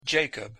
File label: pronunciation en "Jacob"